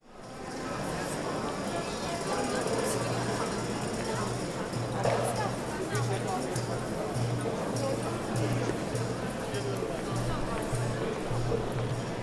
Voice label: Istiklal street, Crowd, Turkish chatter, People passing, Street; Istanbul; Street musicians; Sound Ex Machina